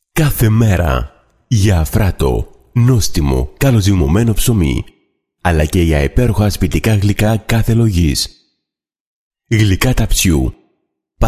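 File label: Greek, male, corporate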